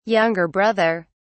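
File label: "younger brother", British English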